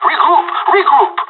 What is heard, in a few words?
radio bot regroup, pirate; Counter Strike Model Pack; CS:GO